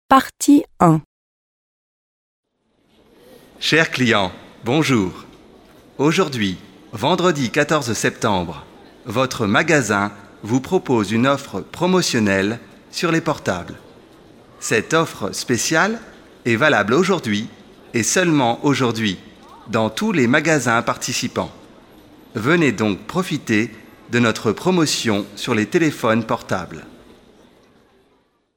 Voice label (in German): Franzoesisch Hoerverstehen